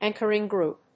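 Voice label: "anchoring group", English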